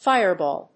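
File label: "fireball", English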